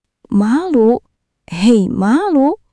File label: indonesian phrases